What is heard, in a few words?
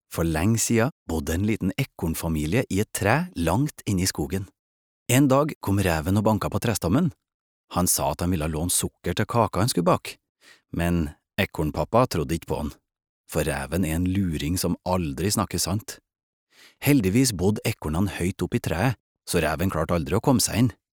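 Norwegian children's book tronders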